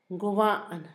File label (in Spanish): ladrón, Zapoteco, Asunción Ocotlan; Humano; INALI